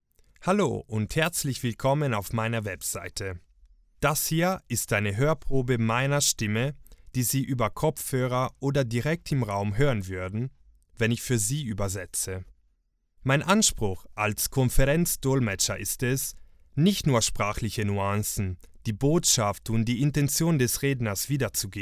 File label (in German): stimmprobe de, voice over